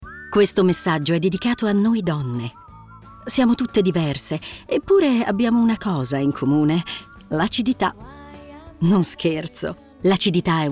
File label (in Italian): radio; lactacyd; on air